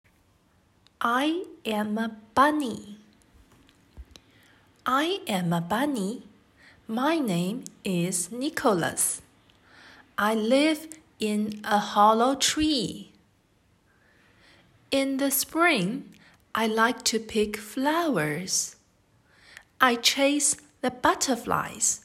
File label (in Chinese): 英文绘本